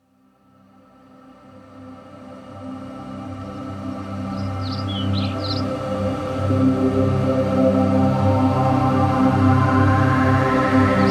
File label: Meditation Morning Sun; Projekt Meditation; morning sun awaken into your light